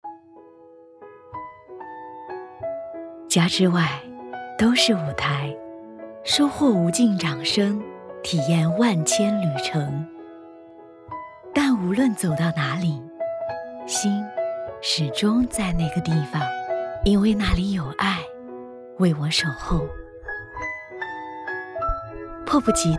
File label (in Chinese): 旁白; 欧派